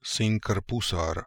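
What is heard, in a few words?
Sinkrpoosarams Russian, Beelzebub pronounciations; Fourth Way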